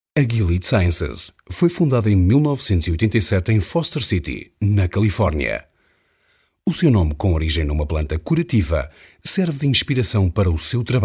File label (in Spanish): locutor portugues